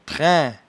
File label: "trein", patois jura